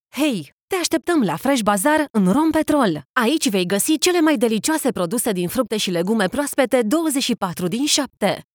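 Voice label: Romanian female Announcement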